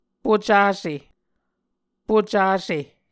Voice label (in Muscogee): "pucase", Muscogee